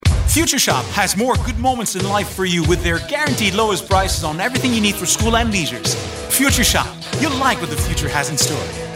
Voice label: Voix pub ENGLISH; Future Shop